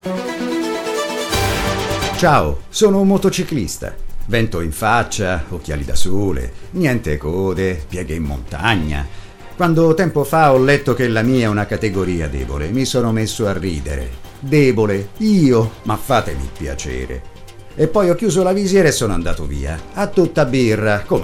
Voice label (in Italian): Spot, Isoradio; Motociclista